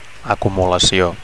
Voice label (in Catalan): "acumulacio", Catalan; (f) accumulation; collection